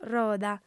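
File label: "roda", Sardinian female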